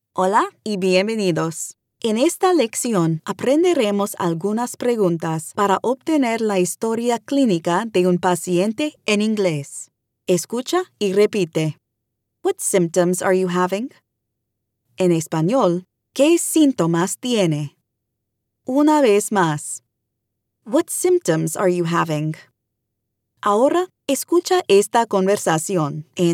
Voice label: Medical English